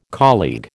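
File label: "colleague", American English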